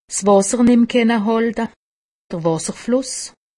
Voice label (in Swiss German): "wasserfluss", alsace; medecine